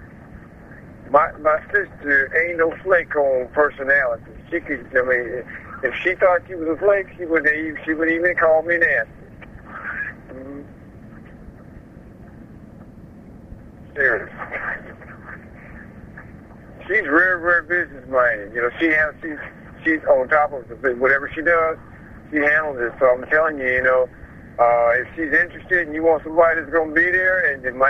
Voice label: Phone message